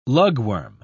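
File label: "lugworm", British English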